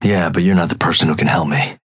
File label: synth match start atlas synth convo; Abrams